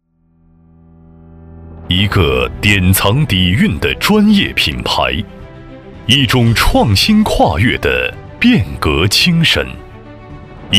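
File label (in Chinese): 男【宣传】; 南都梦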